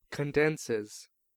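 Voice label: "condenses", American English; pronunciations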